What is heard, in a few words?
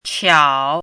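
"qiǎo", Mandarin Chinese; 悄; 忧愁。; 形容没有声音或声音很低：～然无声。低声～语。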